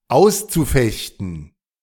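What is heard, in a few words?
"auszufechten", German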